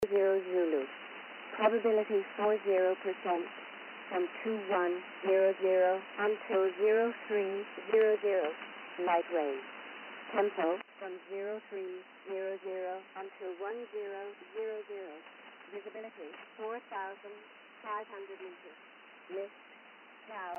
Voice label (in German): Kurzwelle 54m Shannon Volmet; 5505khz